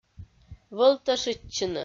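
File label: "вӧлтӧшитчыны", Komi, female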